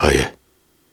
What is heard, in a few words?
Soft Syllables and Imaginary Words, Viking Vocal